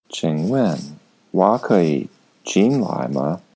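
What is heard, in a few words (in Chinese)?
"qingwen wo keyi jinlai ma", Mandarin Chinese; Chinese pronunciation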